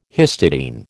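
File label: "histidine", American English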